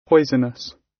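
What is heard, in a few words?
"poisonous", British English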